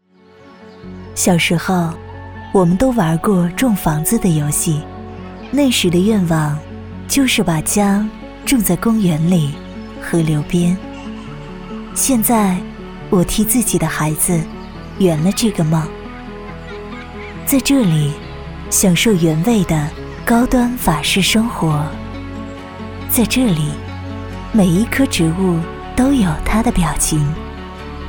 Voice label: 女 宣传片 地产 地产 温柔

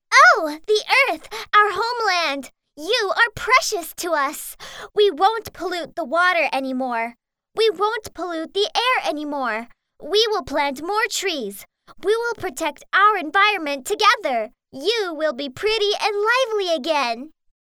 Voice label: 外籍女英 外语 美式英语 活泼

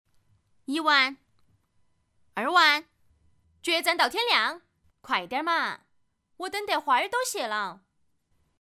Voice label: 重庆话棋牌

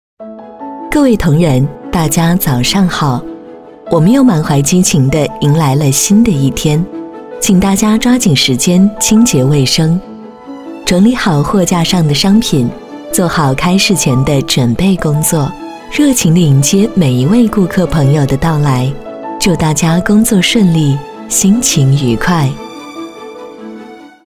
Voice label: 专题 购物广场 时尚; 企业; 万达购物广场

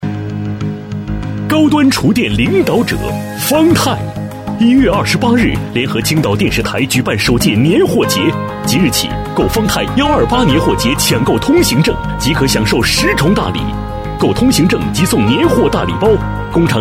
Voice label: 男国 广告 促销; 苏宁易购方太年华节

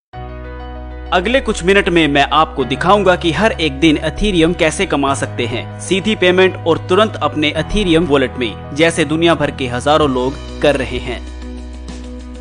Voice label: 印地语男 外语 小语种 样音

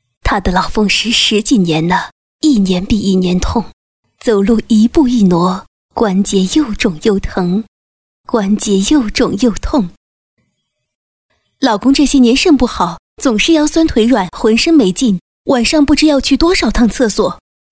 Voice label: 动画 角色 奶奶; 奶奶老婆广告

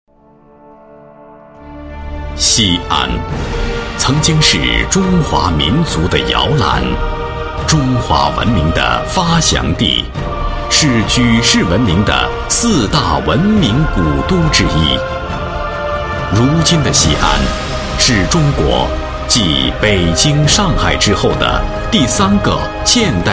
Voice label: 男 宣传片 厚重; 城市; 西安城市

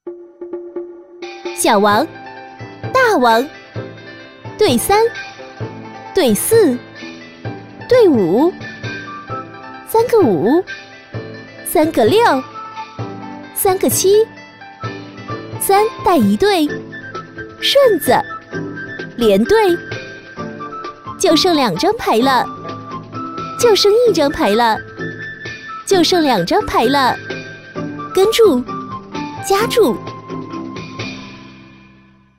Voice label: 动画 游戏 斗地主, 女

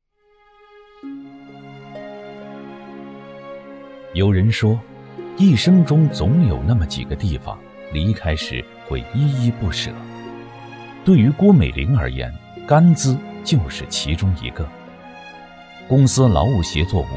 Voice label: 专题 人物 人物专题 抒情

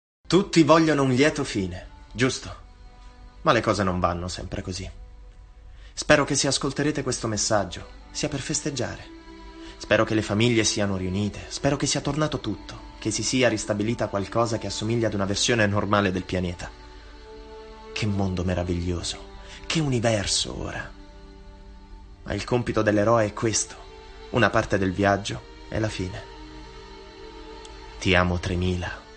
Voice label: 意大利语男 外语 小语种

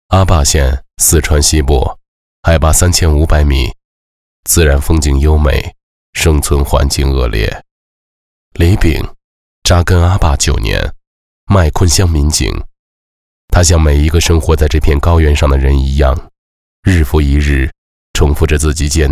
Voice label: 男国 微电影 人物独白

